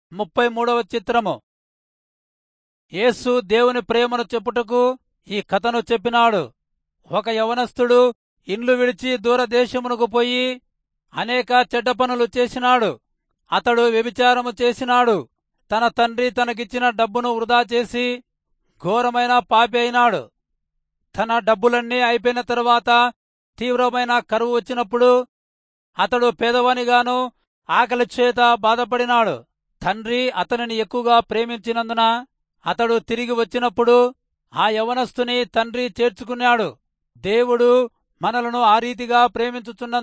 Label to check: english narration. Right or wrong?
Wrong